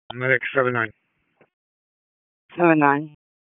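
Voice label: EMS Dispatch, p25; wake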